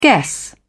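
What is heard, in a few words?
"guess", British English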